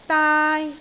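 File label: "daai1", Cantonese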